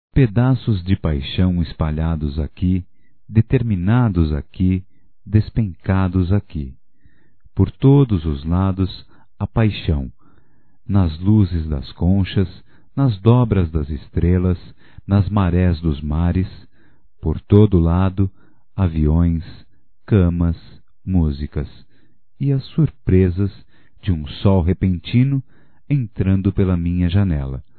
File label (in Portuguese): AMAR VOCÊ, Românticas, masc